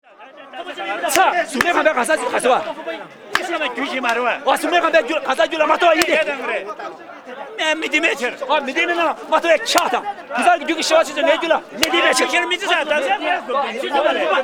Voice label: Tibet Monk Debate